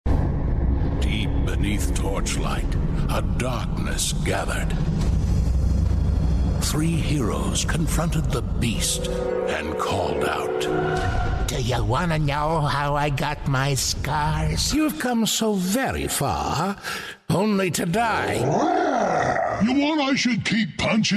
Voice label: Creepy